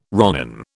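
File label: "ronin", British English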